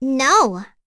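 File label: Vox Deny, voices heroes English; kingsraid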